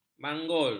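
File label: "manggul", Malay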